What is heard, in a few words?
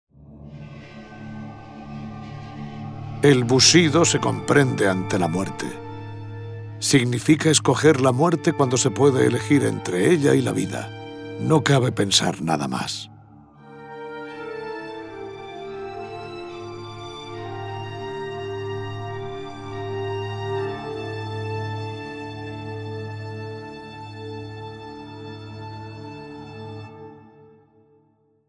Spanish, Speech, Wonder; Civ5; Himeji Castle